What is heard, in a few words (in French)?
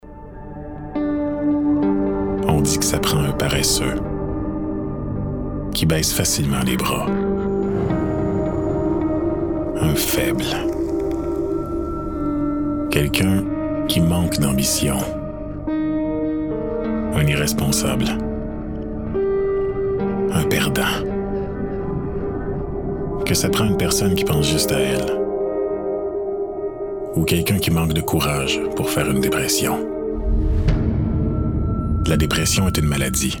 pub gouvernement du quebec, voix hommes